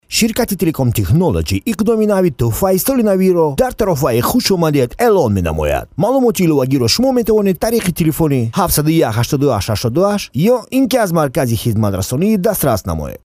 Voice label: Tajik male

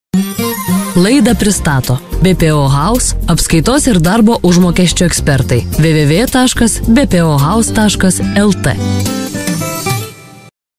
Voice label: Lithuanian female

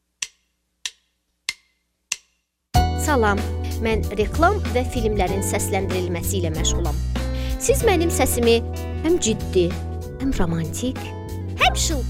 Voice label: Azerbaijan female

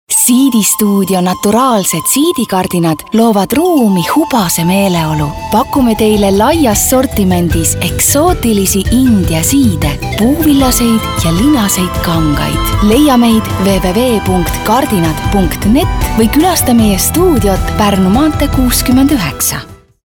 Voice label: Estonian female

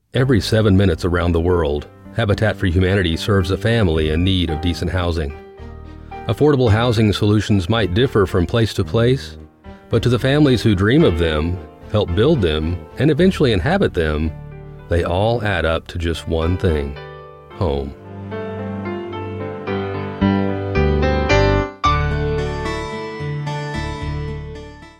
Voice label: English - American

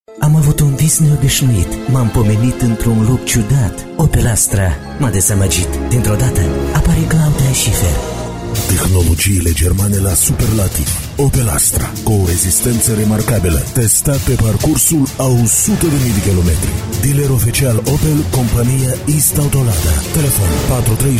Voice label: Moldavian male

